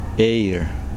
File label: "east", Kosraean